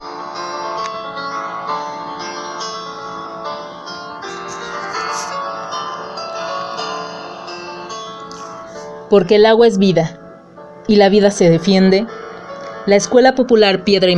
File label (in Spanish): promo; pedregales